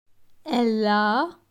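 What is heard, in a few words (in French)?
"Elle a", French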